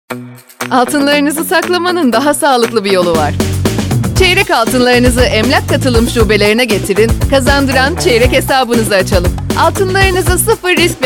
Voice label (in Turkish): reklam; emlak katilim